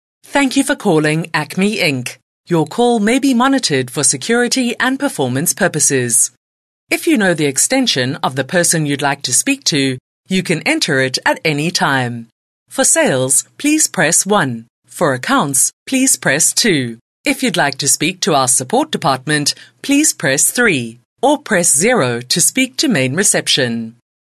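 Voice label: South African, voiceovers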